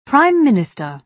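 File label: "prime minister", British English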